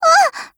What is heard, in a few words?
MOOD JP